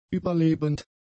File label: "überlebend", German; adjectives